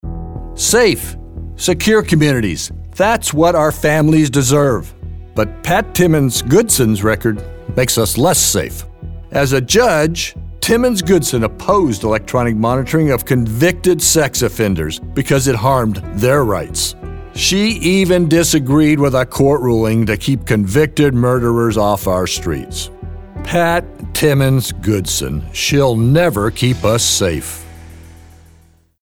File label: Political Negative